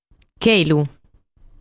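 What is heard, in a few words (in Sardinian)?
"Chelu", Sardinian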